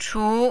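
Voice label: "chu", Mandarin, tone 2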